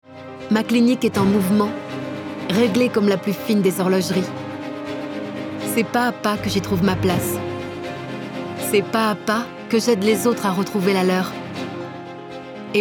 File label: french narration; professional French voice actress and singer